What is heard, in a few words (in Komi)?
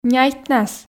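"няйтнас", Komi, female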